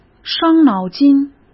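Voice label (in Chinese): "伤脑筋", Mandarin Chinese; chinese flashcards; hsk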